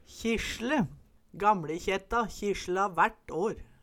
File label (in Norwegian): kjisle, Numedalsmål